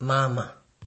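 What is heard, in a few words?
"mama", Nigerian Pidgin, male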